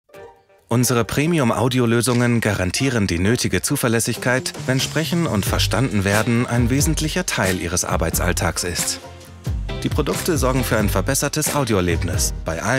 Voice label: GER Commercial Cool Understated; Sennheiser